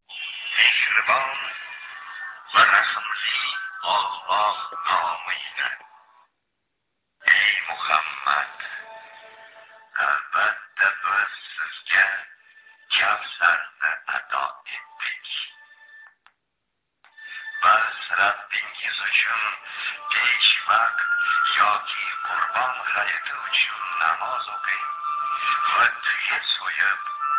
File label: Uzbek